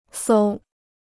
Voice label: "搜", Chinese

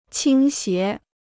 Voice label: "倾斜", Chinese

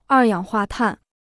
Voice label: "二氧化碳", Chinese

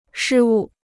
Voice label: "失误", Chinese

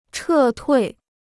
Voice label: "撤退", Chinese

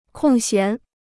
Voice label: "空闲", Chinese

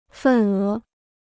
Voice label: "份额", Chinese

